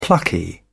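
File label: "plucky", British English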